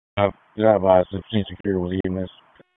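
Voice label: Dispatch, p25; wake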